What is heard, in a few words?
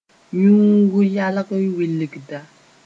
yũug yalg wilgda, moore